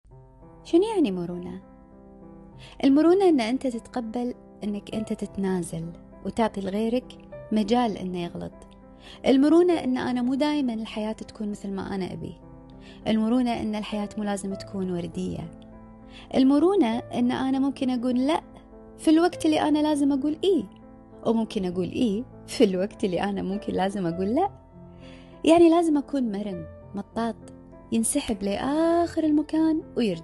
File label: kuwaiti voiceover